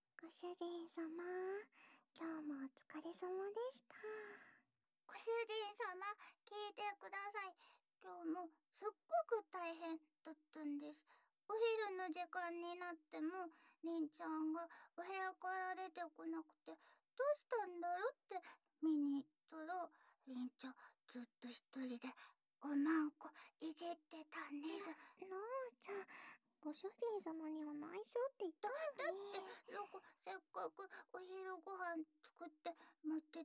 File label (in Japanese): 後日談の囁きおほ声W添い寝♪